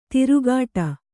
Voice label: "ತಿರುಗಾಟ", kannada